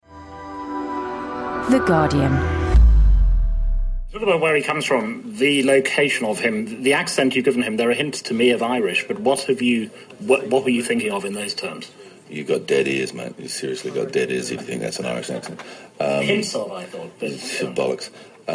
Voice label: Russell Crowe accent